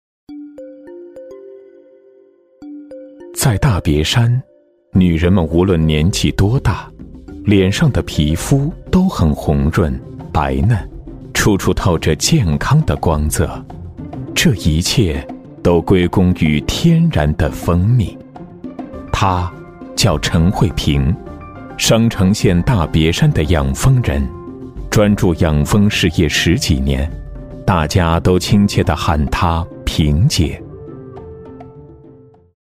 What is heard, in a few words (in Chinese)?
男 舌尖 沉稳深情; 王浆护肤; 磁性稳重; 纪实记录